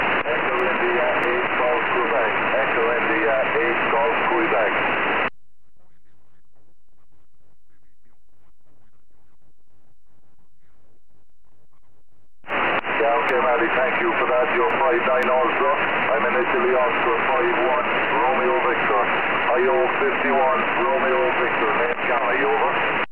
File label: qso